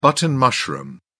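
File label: "button mushroom", English, British English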